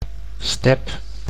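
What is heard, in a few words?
"step", Dutch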